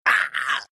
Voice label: pain, ru; dota2